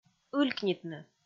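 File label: "ылькнитны", Komi, female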